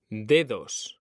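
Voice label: "DEDOS", Spanish; mekanta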